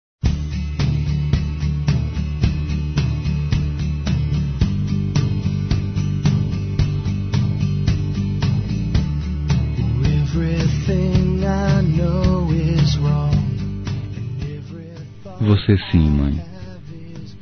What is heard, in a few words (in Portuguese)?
Dia das Mães Neutro Masculino; Telemensagens; Telemensagens 2017